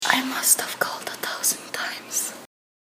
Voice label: whisper; Senses vocabulary